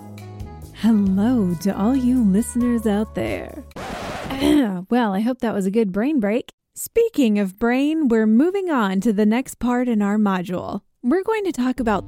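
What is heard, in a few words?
American English, eLearning; voice demos; GoLocalise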